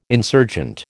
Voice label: "insurgent", American